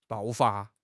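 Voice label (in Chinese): "爆发", Chinese; HSK6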